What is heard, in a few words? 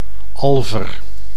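"alver", Dutch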